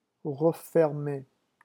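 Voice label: "refermer", French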